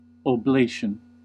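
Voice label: "oblation", US English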